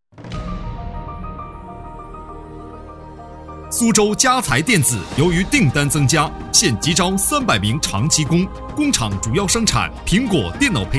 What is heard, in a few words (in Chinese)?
政企宣传